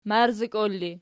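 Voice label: "marzikol" ergative, Archi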